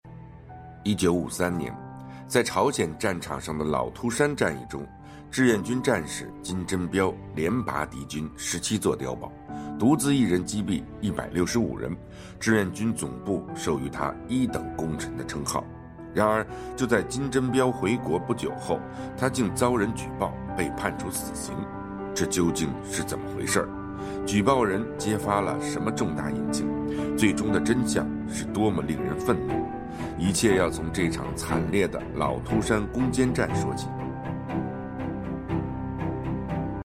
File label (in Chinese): 平缓深沉